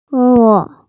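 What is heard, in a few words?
"kun4", Mandarin Chinese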